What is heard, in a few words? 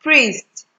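"priest", Nigerian Pidgin, female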